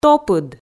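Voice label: "топыд", Komi, female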